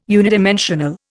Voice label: "unidimensional", Indian